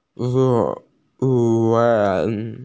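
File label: "xue2yuan4", Chinese, F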